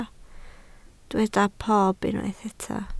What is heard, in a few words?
"pob", Welsh